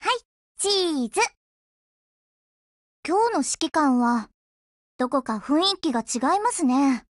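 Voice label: HELLO Japanese, Voice; GF Alarm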